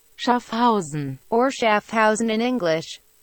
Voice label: Schaffhausen Pronunciation